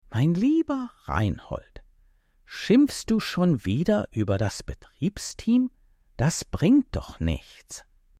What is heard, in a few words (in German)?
schimpft